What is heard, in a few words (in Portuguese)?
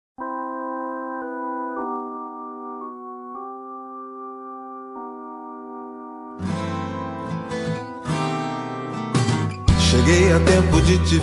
dia dos pais para mae que e pai voz masculino